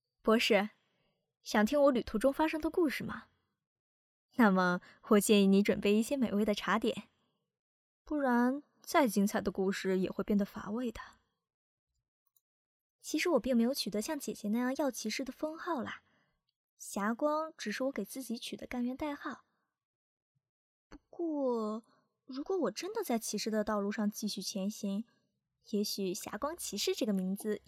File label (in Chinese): 少御声线展示